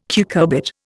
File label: "cucurbit", Indian